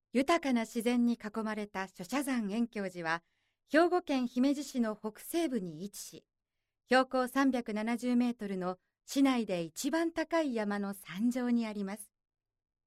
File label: narration